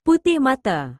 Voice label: throw in the towel, malay; putih mata; white eyed

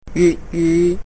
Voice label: "cantonese", cantonese

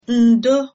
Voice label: sorry, igbo

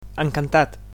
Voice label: "meet", Catalan

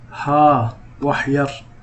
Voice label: yes a little, somali; Haah. Wax yar; Do you speak Somali?

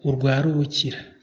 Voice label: get well soon, kinyarwanda

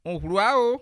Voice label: goodbye, bassa

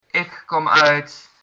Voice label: I'm from, dutch

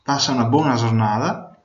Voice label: have a nice day, Venetian

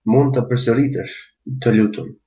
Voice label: say again, albanian gheg

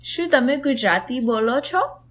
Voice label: do you speak, gujarati

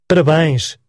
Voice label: birthday, portuguese

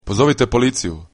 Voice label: police, croatian

